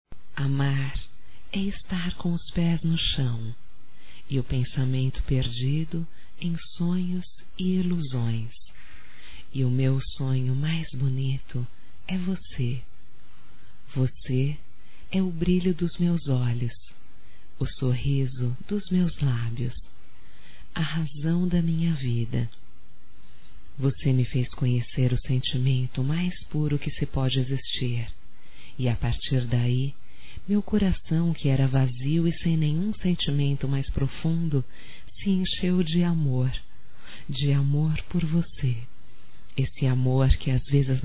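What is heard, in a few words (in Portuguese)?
voz romântica, fem; Telemensagens 2017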